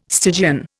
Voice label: "Stygian", Indian

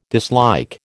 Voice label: "dislike", American

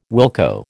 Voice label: "wilco", American

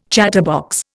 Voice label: "chatterbox", Indian

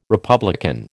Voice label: "republican", American

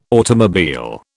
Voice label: "automobile", British

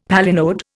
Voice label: "palinode", Indian; Dictionary

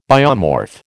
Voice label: "biomorph", American

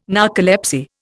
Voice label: "narcolepsy", Indian

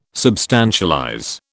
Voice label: "substantialize", British

